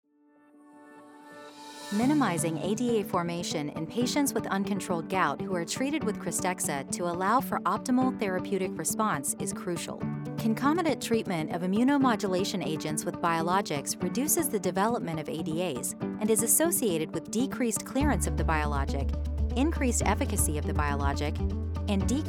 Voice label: medical narration